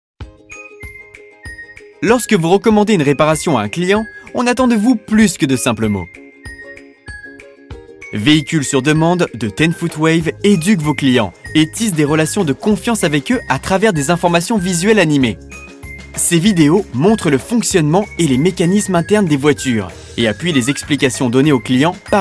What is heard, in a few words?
Narration